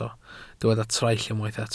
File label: "traill", Welsh